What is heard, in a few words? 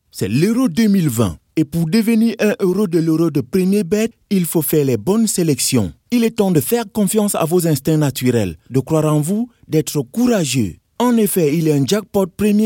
african french voice commercial